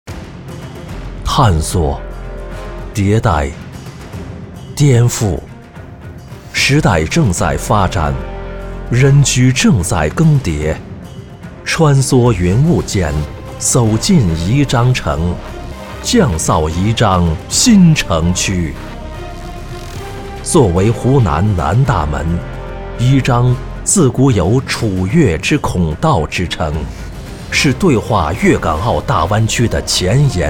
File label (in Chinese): 男 宣传片 闽派生活